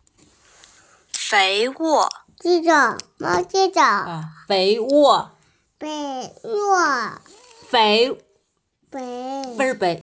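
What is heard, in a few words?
"fei2wo4", Mandarin Chinese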